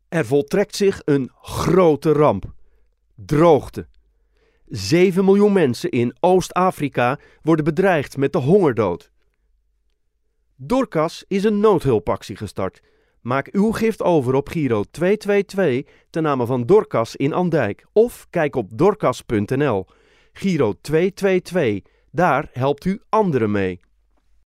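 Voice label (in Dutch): Commercials Noodhulpactie, Voice-over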